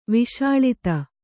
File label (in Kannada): "ವಸೂಲಾತಿ", Kannada